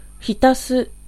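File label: Japanese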